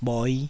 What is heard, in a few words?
"bhoi5", taiwanese Syllables